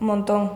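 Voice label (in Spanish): "monton", Spanish, Andalusia, Sevilla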